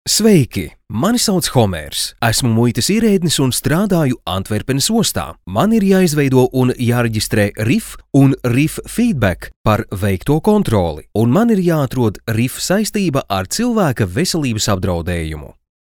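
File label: Latvian, male, elearning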